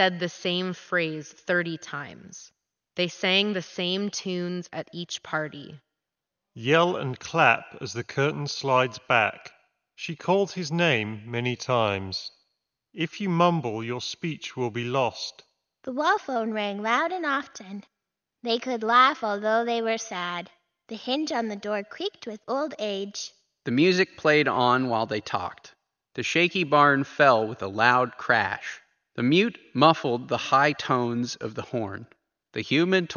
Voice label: Logitech Pro X2 Lightspeed Reverb microphone sample